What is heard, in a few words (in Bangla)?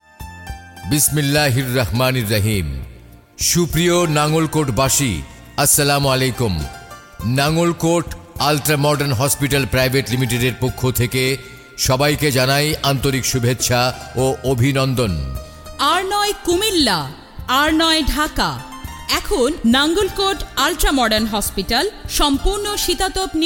promo, Bangla